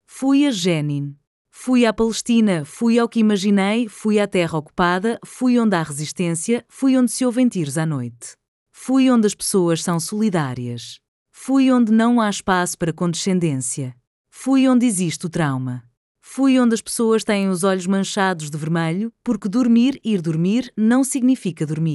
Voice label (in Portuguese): Poema pt